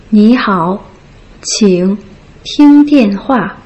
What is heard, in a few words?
"ni hao qing ting dian hua", Putonghua